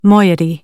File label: "moiety", American